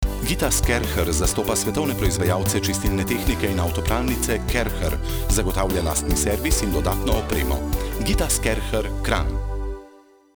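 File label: slovenian male voice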